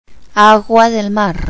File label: "seawater", Spanish